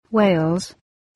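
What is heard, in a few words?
"wales", English word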